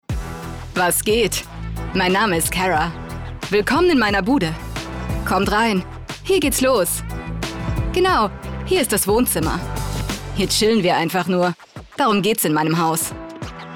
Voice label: VoiceOver; Cribs